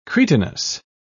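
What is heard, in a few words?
"cretinous", British English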